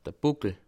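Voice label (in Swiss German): "buckel", Bas Rhin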